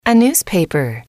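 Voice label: "a newspaper", female, English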